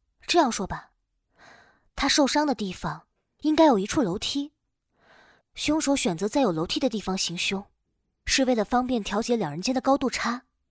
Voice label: 青年女性

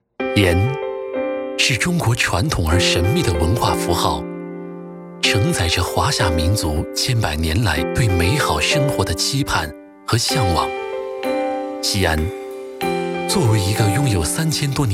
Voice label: 纪录片; 西安

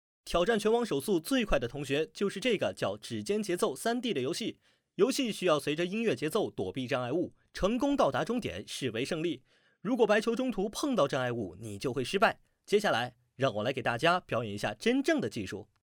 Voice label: 少年音安利试音

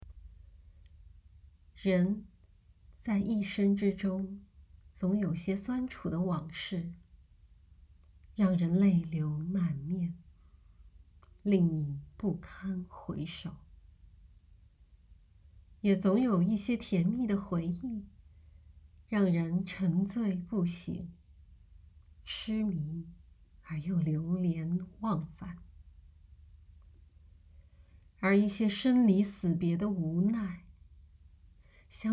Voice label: 散文朗读

